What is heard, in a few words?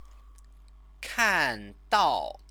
"kandao", Chinese